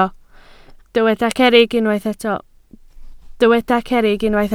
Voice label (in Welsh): "cerrig", Welsh